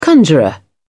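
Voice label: "conjurer", British English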